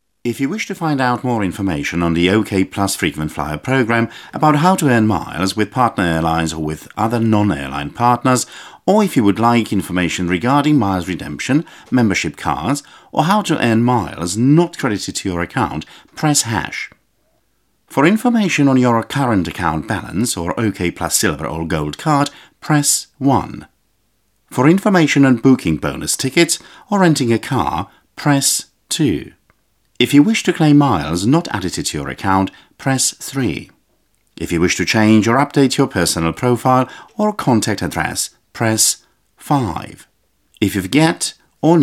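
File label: Male English IVR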